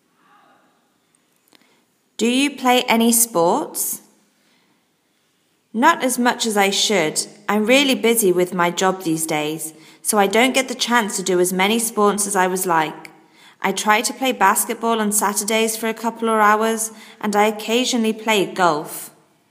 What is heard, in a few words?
Do you play any sports, ielts speaking sample answer